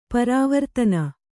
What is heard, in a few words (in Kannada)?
"ಪರವರ್ತನ", Kannada